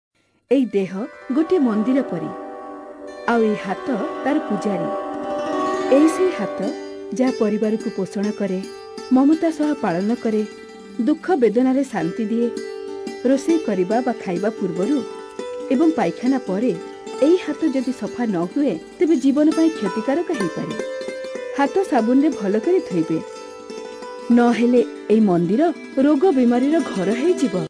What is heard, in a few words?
Oriya Female